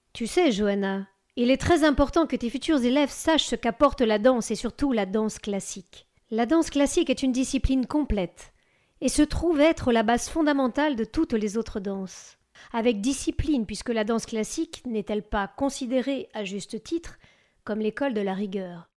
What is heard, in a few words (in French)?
Narration Voix percutante; Tourbillon